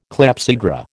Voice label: "clepsydra", American